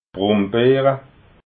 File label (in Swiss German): "brumbeera", alsace